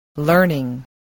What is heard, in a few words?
"learning", voice